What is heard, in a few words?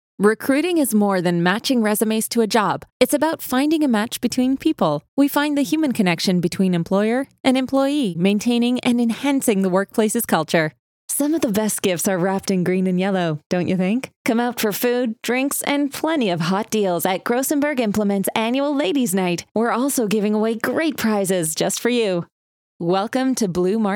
english canadian ivr